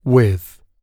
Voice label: with, gb